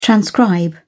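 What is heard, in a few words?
"transcribe", British English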